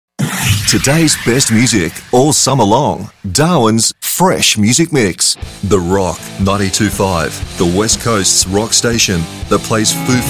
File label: PROMO IMAGING LIMITING